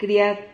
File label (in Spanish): "criad", Silabas